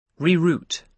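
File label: "re route", British English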